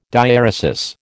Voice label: "diaeresis", American